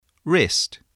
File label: "wrist", voice, english